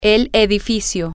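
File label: building, Spanish, female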